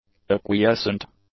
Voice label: "acquiescent", English; adjectives

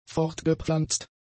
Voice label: "fortgepflanzt", German; adjectives

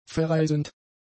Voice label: "vereisend", German; adjectives

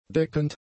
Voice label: "deckend", German; adjectives

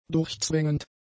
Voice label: "durchzwängend", german; adjectives

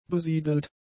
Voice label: "besiedelt", german; adjectives

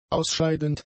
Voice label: "ausscheidend", German; adjectives

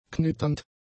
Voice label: "knitternd", German; adjectives

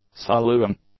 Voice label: "soloing", english; adjectives

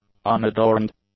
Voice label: "unadorned", English; adjectives

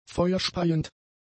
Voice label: "feuerspeiend", German; adjectives

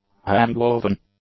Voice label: "hand-woven", English; adjectives

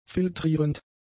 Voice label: "filtrierend", German; adjectives

